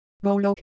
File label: Korean, ideophones; Shape